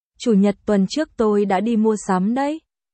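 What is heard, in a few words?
Vietnamese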